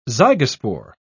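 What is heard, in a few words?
"zygospore", British English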